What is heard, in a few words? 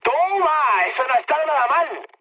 trick, mood high, speech; War Thunder